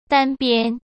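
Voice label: "单边", Mandarin Chinese; chinese-flashcards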